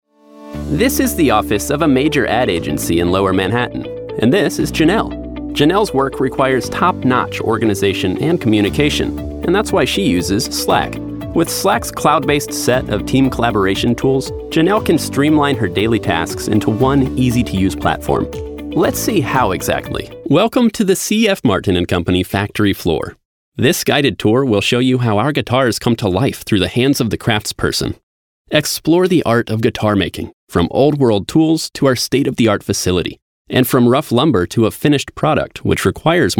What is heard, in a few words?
20s 30s Male Narration